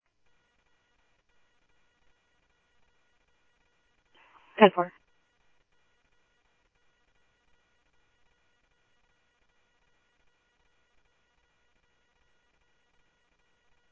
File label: Police, scanner